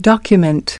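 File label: "Document", English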